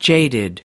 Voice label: "jaded", us